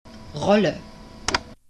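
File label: "rolle", German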